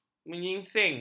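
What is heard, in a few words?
"menyingsing", Malay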